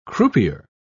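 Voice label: "croupier", English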